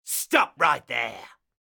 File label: Pirate Elite Male Alert Line; NPC; dialogue; Heist Thug Spotted